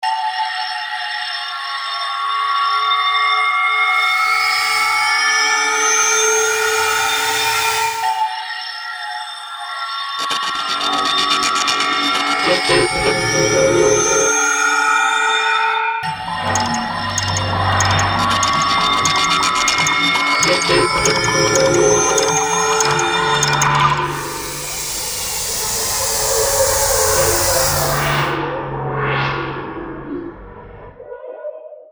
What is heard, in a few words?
Alien Voices